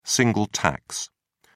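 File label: "single tax", British English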